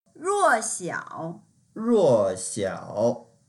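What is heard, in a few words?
"ruo4xiao3", Mandarin Chinese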